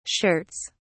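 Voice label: "shirts", British English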